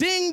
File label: "ding", voice; ooh ahh